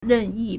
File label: "ren4 yi4", Chinese